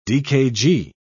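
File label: "dkg", British English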